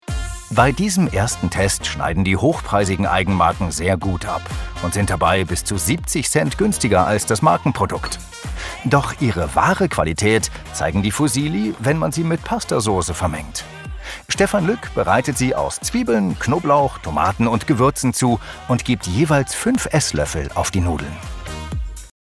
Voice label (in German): Kommentar